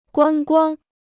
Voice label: "观光", Chinese

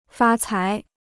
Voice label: "发财", Chinese

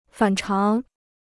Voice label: "反常", Chinese

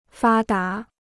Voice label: "发达", Chinese

